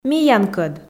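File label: "миянкӧд", Komi, female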